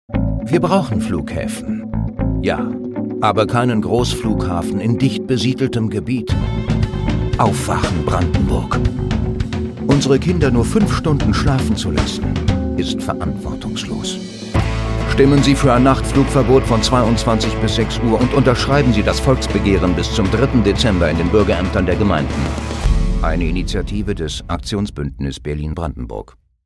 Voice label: Radio-Spot; Volksbegehren